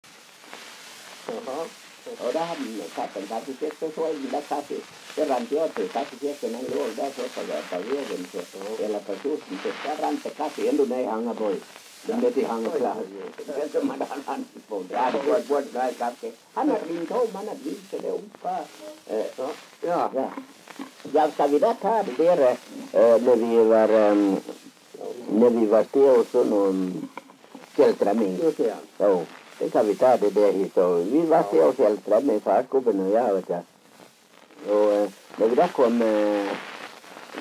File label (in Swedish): dialekter; Folkminnen